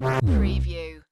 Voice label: "Evacuate" (dry); lynne publishing